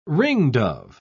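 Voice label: "ringdove", British English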